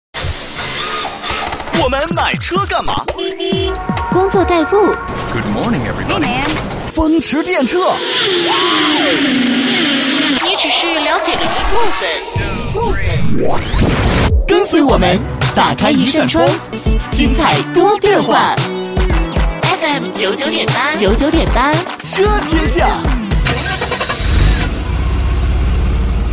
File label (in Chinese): 女 电台片头, 国语女声配音; 998车天下